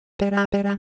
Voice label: Japanese, ideophones; Shape